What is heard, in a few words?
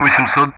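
Speech Sound Russian; DCS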